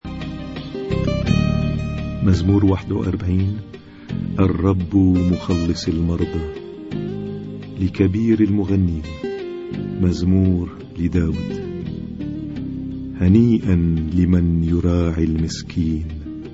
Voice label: Arabic Psalm Zaboor